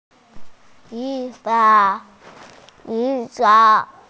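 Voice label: "chi1 fan4", Mandarin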